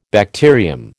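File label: "bacterium", American English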